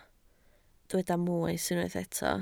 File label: "moes", Welsh